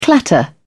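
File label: "clatter", English, uk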